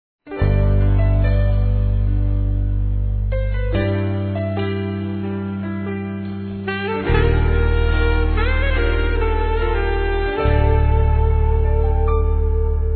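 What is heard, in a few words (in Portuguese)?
Aniversário Sobrinha EVANGÉLICO Feminino, Telemensagens; Aniv Familiar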